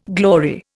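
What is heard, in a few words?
"glossal", Indian; Dictionary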